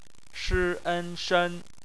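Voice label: "shen", Mandarin Chinese; phonetics; bpmf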